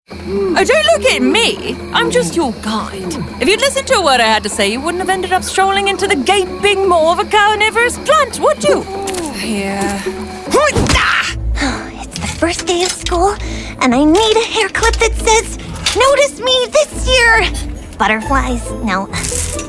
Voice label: vo animation